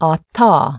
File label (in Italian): Linguolabiale; IPA